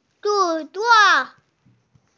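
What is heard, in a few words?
"gong1zuo4", Mandarin Chinese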